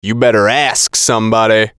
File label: VOICE COMICAL; You Better Ask Somebody; Radio Voice; Blastwave